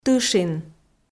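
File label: "тышин", Komi, female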